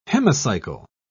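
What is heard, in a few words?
"hemicycle", British English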